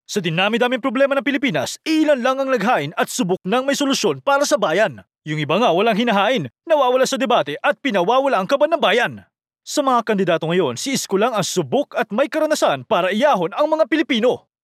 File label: tagalog political ads